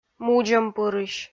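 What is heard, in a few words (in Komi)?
"мудзӧмпырысь", Komi, female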